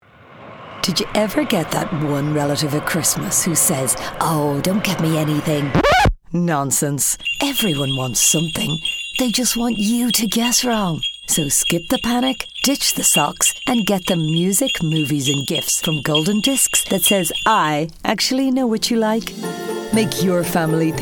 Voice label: radio ad, Irish female voiceover; Radio Commercials; Golden Discs Music Retail Radio

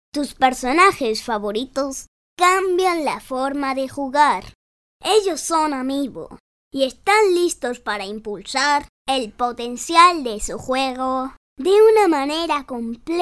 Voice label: Kid voices Spanish Latinamerican

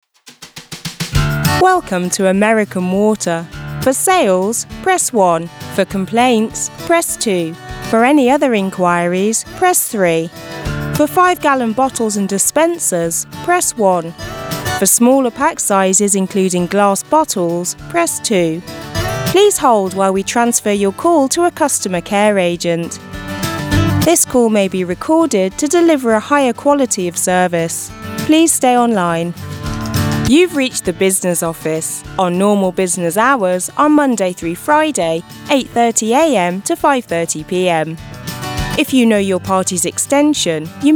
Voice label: IVR reel

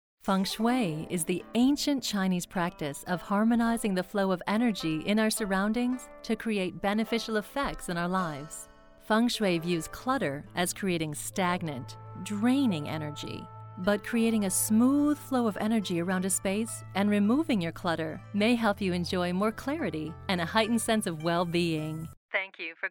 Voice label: NARR

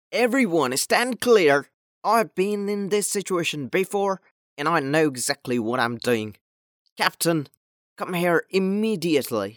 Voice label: Cartoon Animation

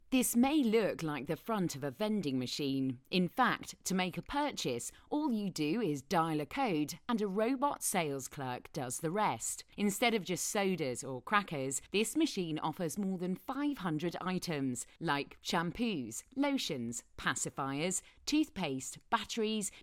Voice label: VO Narration light informative

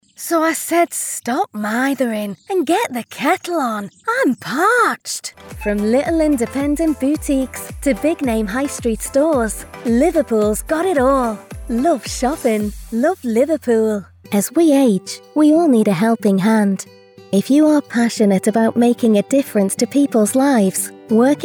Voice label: Northern

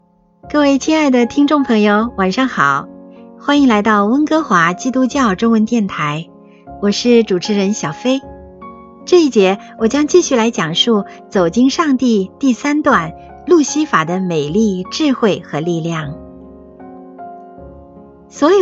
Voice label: Radio Commercials

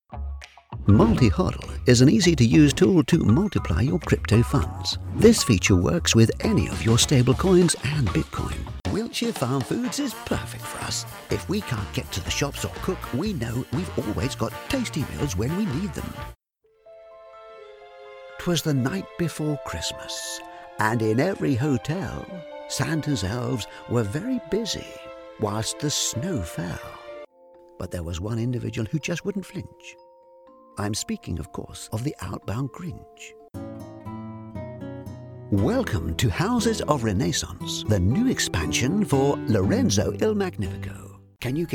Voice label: VOICEOVER

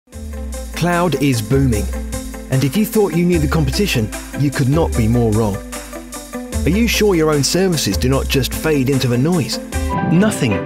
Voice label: Corporate Narration

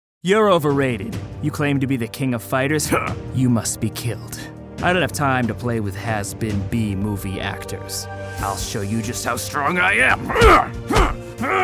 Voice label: CHARACTER ANIMATION

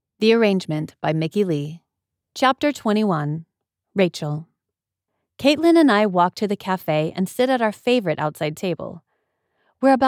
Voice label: Audiobook

